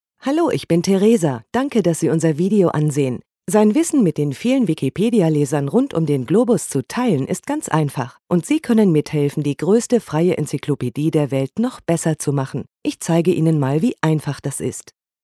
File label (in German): Kommentar Freundlich